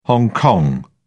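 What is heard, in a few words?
"hong kong", British English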